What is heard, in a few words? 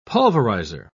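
"pulverizer", British English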